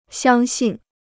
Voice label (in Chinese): "相信", Chinese